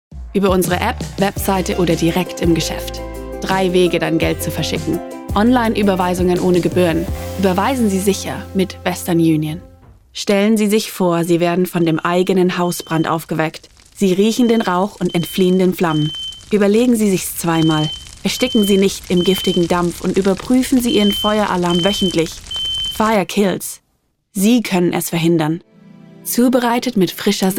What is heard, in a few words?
GER COMM